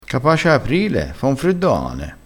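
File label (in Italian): "capace", vocabolario dialettale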